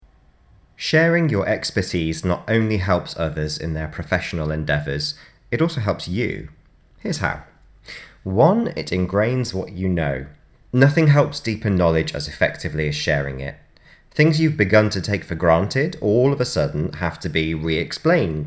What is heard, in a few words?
Narration; Radius; Widening Your Network